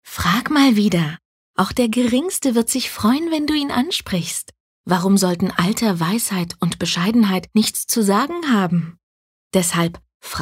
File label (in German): Werbung Niedlich